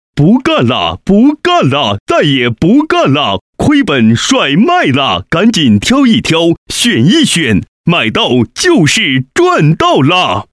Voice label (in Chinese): 男, 促销, 街边叫卖